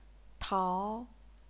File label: "tao2", chinese pinyin